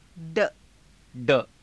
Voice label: "rda", Hindi

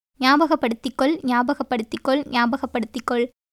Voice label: Tamil

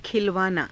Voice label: "khilavaanaa", Hindi

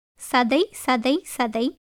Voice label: Tamil; சதை; catai; [səd̪'əi]; flesh; 肉

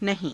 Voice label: "nahii~", Hindi; नहीं

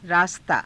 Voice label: "raastaa", Hindi

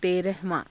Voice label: "terahavaa~", Hindi

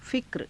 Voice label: "fikra", Hindi

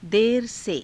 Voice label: dera se, Hindi

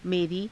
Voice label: "merii", Hindi

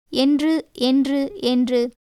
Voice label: Tamil

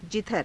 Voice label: "jidhara", Hindi; जिधर

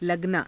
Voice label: "laganaa", Hindi

